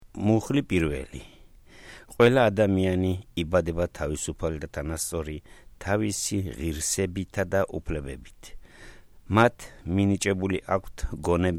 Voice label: Georgian

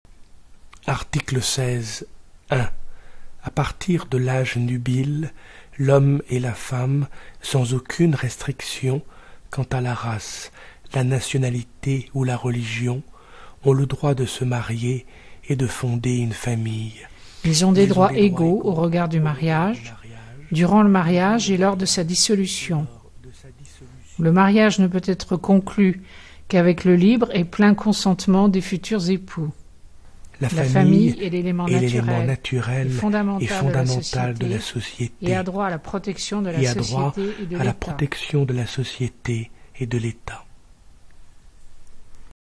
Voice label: French

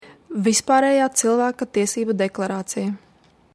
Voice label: Latvian title

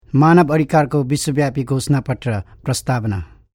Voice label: Nepali title

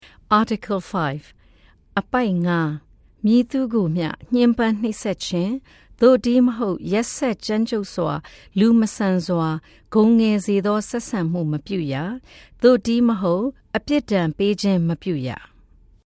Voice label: Burmese

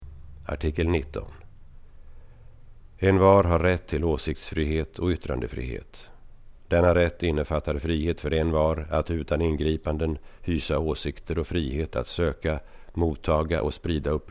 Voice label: Swedish

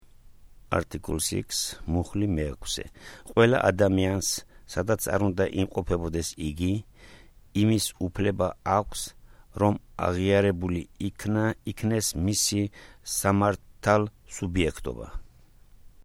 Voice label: Georgian

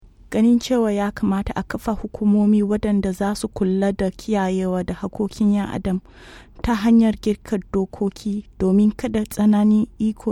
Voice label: Hausa

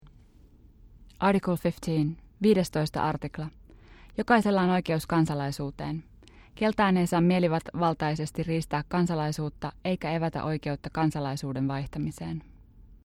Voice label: Finnish

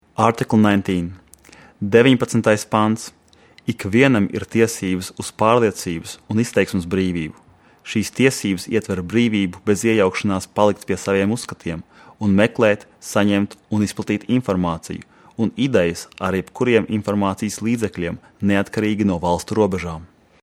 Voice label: Latvian

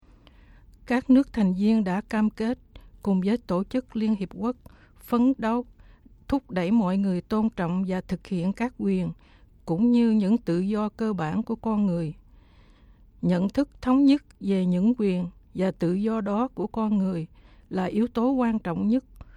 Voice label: Vietnamese

